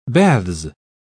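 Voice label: "baths", British English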